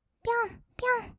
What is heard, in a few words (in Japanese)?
"ぴょんぴょん", kawaii voice; ASMR; 色々なワンショット